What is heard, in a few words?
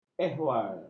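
"ehwal", Malay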